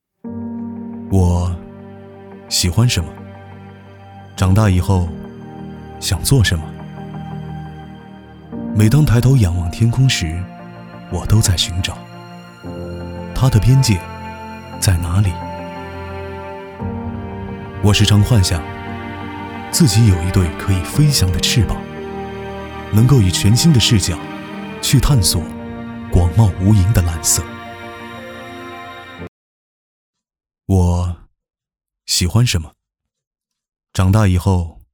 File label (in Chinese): 走心年轻励志